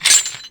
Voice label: confident

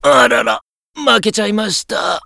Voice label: Lost jap; Hazama

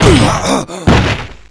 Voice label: dynamic

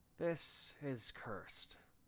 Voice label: voice cursed

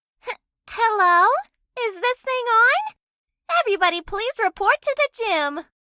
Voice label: voice announcement

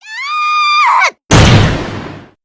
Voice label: dynamic, jp; weiss

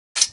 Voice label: threaten; asougi

